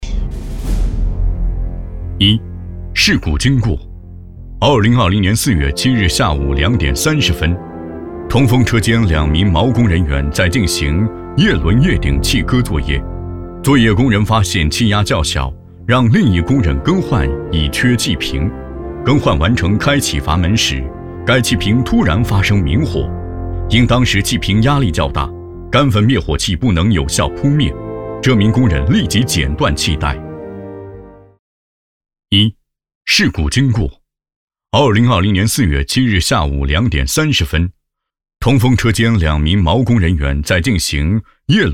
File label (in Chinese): 男, 警示教育; 事故经过